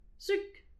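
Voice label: "sukr", Breton; petit precis de prononciation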